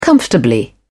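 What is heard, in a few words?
"comfortably", British English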